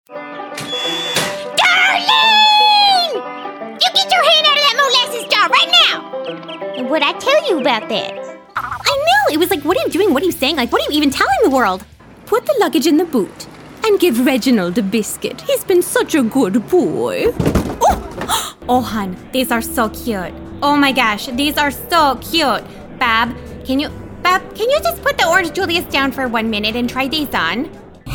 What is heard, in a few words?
Character Animation